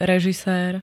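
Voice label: "režisér", Slovak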